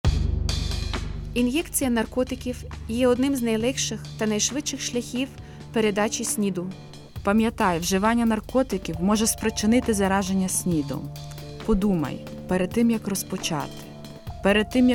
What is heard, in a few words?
spot ukrainian music